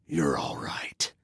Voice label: You Rock, Male

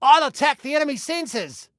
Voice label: Self Attack Sensors, Male; Male 02

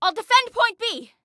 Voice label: defend, Female

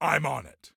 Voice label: On It, Soldier Male

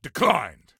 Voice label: Command Declined, Soldier Male; Soldier Male 03

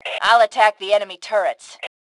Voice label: Self Attack Turrets, Female; T2 Female 03

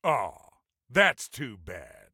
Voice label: Taunt Aww, Soldier Male; Soldier Male 03

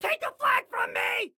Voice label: Flag Take, Excited Guy

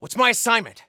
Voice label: Command Assignment, Male; T2 Male 03

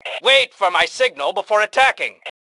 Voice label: Attack Attack Wait, Male; T2 Male 03

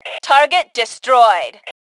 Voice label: Target Destroyed, Female; T2 Female 01

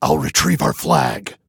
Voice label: Flag I Retrieve, Male

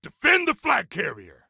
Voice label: Defend Flag Carrier, Soldier Male; Soldier Male 03

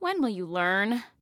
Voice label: Taunt Learn, Female; Female 02

